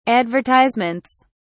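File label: "advertisements", British English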